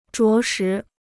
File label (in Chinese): "着实", Chinese